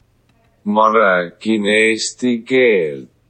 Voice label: Estonian